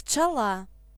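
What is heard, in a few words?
bee, Belarusian; animals; insects; tuxpaint stamps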